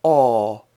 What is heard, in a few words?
open mid back rounded vowel